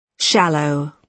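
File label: "shallow", British English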